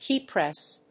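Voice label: "keypress", English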